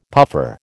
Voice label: "puffer", American

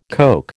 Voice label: "Coke", American

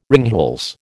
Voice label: "ringhals", American

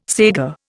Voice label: "saiga", Indian

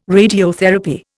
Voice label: "radiotherapy", Indian

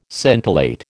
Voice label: "scintillate", American

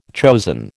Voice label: "chosen", American

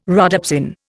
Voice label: "rhodopsin", Indian

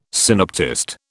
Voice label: "synoptist", British

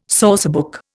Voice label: "sourcebook", Indian

